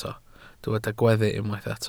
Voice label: "gweddu", Welsh